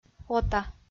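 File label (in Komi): "ота", Komi, female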